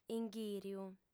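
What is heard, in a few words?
"inghìriu", female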